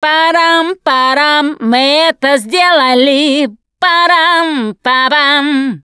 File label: transition close, russian, Survivor Voice Producer